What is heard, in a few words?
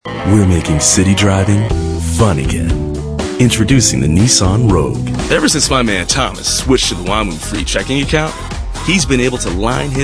male, mid 30s mid 40s, cool